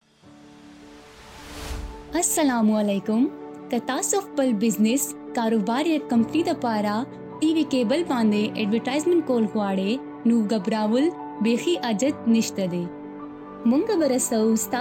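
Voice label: Pashto, commercial; GoLocalise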